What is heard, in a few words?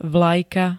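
"vlajka", Slovak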